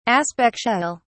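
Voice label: "aspectual", English, British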